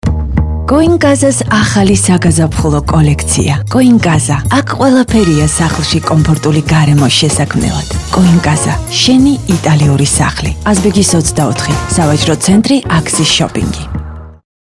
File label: Georgian